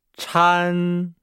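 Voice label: "chan", Chinese; Nihao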